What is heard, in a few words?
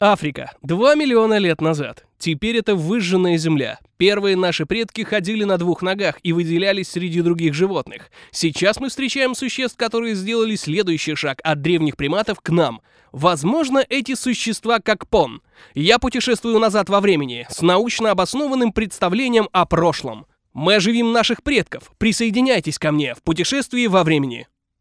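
zg narration dynamic, Russian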